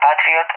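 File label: "Patriot", English, SPAIN; AirDefence; DCS; Allied Flight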